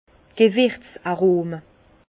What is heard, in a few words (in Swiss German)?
"gewerz arome", Bas Rhin; vin; arômes d’épices